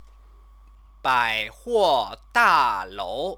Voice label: "baihuodalou", Chinese